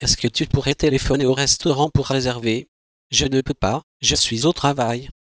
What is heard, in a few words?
synth